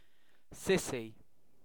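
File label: "sissy" English, British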